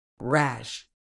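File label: "rash", medical English, British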